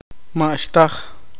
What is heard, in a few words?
poqomchi; nada